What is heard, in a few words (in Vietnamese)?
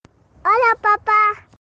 Nhac chuong hola papa de thuong